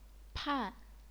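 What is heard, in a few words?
"pan", fourth tone, chinese pinyin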